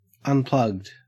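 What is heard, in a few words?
"unplugged", Australian English; pronunciations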